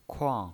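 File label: "kuang", pinyin, Mandarin Chinese, fourth tone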